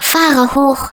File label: turret deploy, de